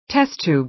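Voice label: "test tube", American English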